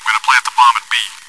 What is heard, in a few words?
chitchat, bot, voice commands; svencoop